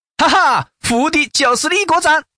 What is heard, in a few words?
dianpao, changsha man; paohuzi xiaoxiang